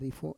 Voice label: "rifo", Roon

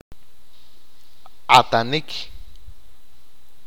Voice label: "cortar", achi

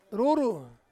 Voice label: "ruru", Ansus; lake

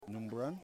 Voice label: "Pig", Yimas

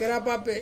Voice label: "kerapape", Ansus; brown

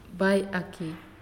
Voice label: "Small", Naasioi

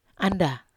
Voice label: "anda", Wamesa

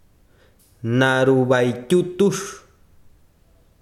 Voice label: besiro mar, chiquitano

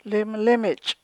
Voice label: "limlimich'", eyelashes, Chukchansi

